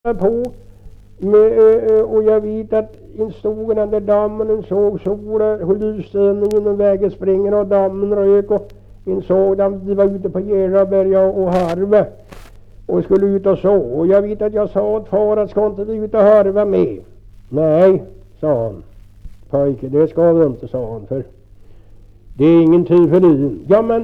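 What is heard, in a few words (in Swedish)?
Kräklinge Närke, Svenska sagor, dialekter; Folkminnen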